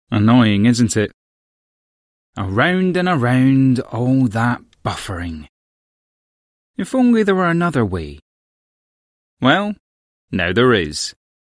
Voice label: english british tv shows